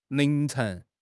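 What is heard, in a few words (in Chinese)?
"凌晨", Chinese; HSK; HSK6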